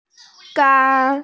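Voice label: "gai1", Mandarin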